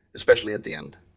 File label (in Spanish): Voice Acting es M